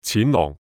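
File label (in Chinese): "錢囊", Cantonese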